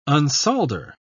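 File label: "unsolder", British English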